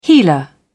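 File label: "healer", British English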